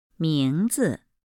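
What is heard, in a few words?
"名字", Chinese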